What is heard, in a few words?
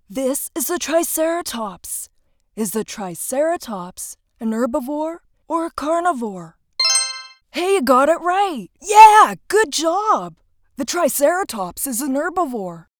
Boy Voice Triceratops eLearning